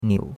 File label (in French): "niu3", chinois; Cours-de-Chinois